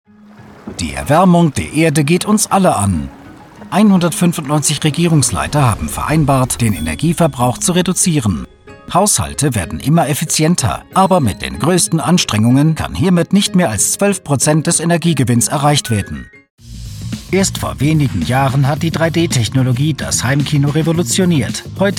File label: German Infomercials